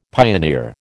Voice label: "pioneer", American